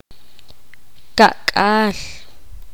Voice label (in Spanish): poqomam cuarenta